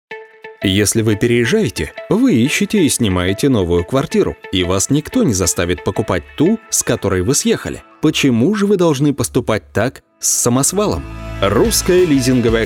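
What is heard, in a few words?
russian male commercial; voice demos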